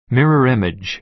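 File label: "mirror image", American English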